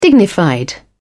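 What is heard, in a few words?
"dignified", en, British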